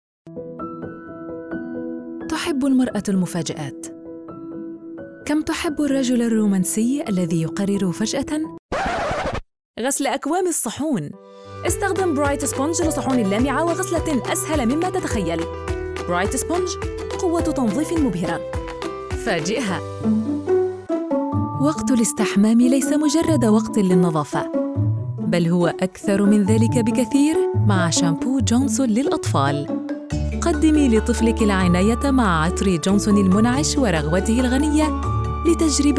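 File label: MSA Commercial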